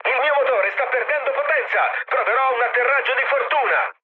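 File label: Italian damaged engine mood med, speech; War Thunder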